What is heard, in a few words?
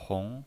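"hong", Mandarin Chinese, second tone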